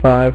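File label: "five", male; MacCribbage; InterStellar MacCribbage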